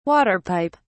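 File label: "water pipe", British English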